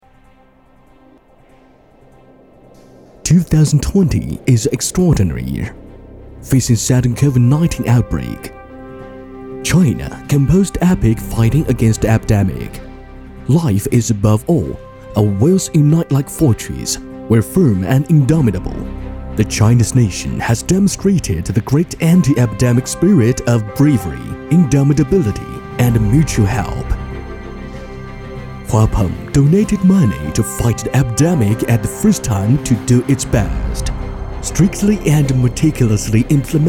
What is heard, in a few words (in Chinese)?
英语男 英语专题; 华鹏集团宣传